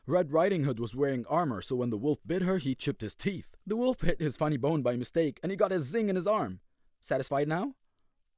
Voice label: English